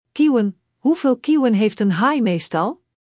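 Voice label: "kieuwen", Dutch; Spelling Gr4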